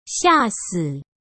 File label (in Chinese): "吓死" Chinese